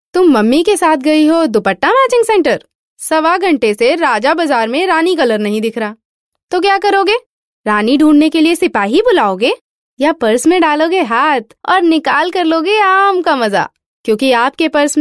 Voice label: Female, Hindi